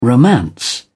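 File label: "romance", English, British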